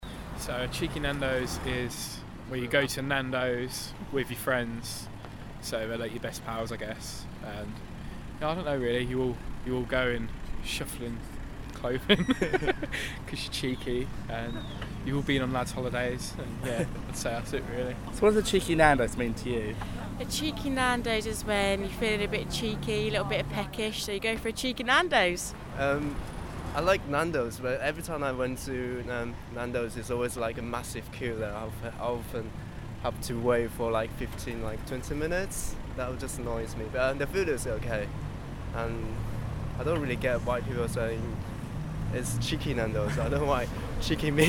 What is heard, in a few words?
Vox Pop